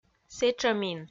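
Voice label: "сэтшӧмин", Komi, female; kpv audio dict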